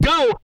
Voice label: go, Vocal, R&B Dance, hip-hop; TB HIP-HOP